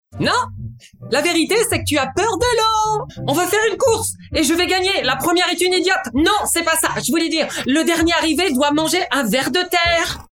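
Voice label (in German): French Kindlich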